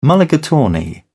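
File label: "mulligatawny", British English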